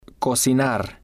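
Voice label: "cocinar", Spanish, male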